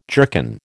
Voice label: "jerkin", American